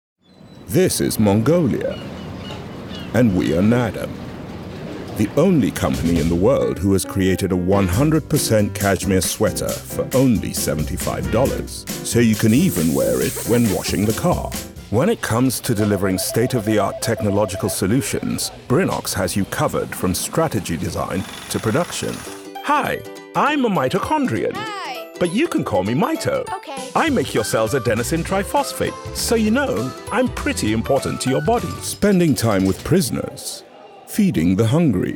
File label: Narration, Professional Male Voice Over; male voice actor